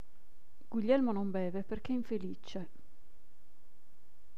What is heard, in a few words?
"non beve", female